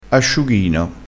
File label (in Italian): "asciughino", crespinese